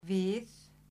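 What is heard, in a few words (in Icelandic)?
"við", Icelandic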